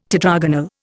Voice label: "tetragonal", Indian